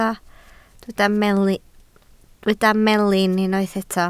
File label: "melin", Welsh